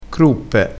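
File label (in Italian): "cruppe", vocabolario dialettale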